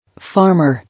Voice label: "Farmer", English

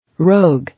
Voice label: "Rogue", English

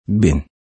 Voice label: "Bin", English

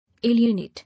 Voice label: "Alienate", English

